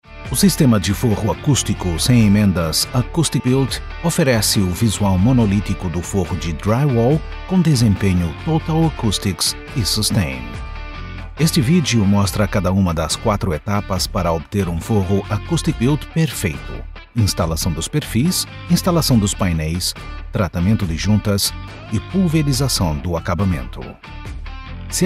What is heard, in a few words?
Brazilian Portuguese Expaliner